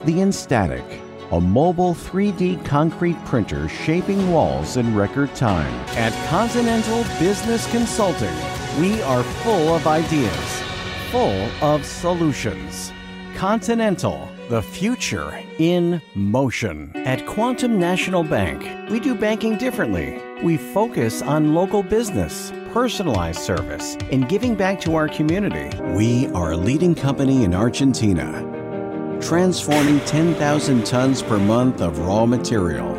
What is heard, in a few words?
english american corporate videos